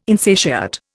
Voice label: "insatiate", Indian

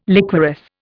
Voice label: "liquorice", Indian

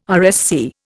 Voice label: "RSC", Indian

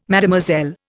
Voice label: "Mademoiselle", Indian

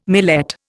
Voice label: "millet", Indian

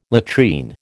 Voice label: "latrine", American

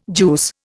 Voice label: "juice", Indian

